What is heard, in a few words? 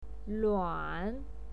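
"luan", Mandarin Chinese, third tone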